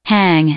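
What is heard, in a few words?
"hang", English, female; verb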